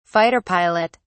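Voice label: "fighter pilot", English, British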